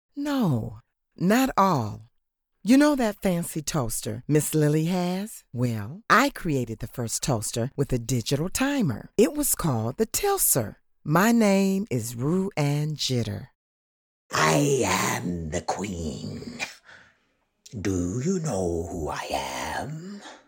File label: animation and film voices